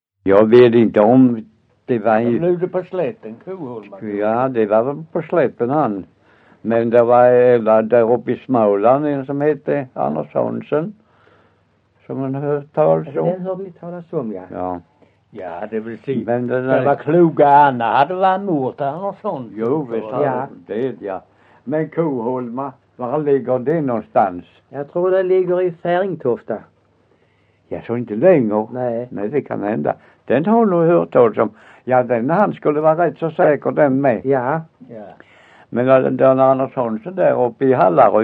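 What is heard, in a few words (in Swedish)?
Össjö Skåne, Svenska sagor dialekter; Folkminnen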